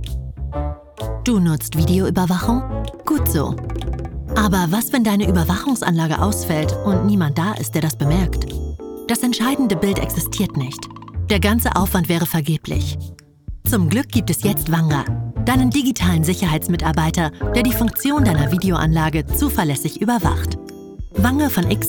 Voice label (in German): Erklärfilm; Vanga